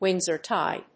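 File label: "Windsor tie", English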